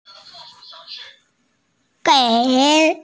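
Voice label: "gei3", Mandarin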